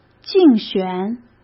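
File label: "竞选", Mandarin Chinese; chinese flashcards; hsk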